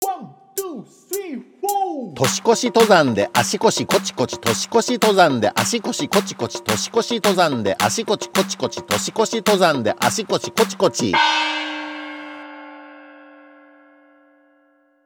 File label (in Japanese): 早口言葉リズム（中級編）